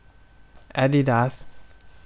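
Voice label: Thai, male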